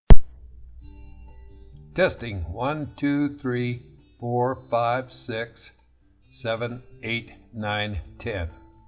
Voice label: Narration